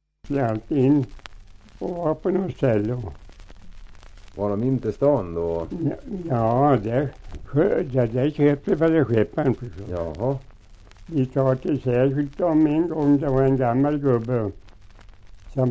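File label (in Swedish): Säterbo Västmanland, dialekter, svenska sagor; Folkminnen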